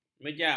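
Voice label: "mejam", Malay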